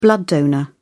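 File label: "blood donor", British English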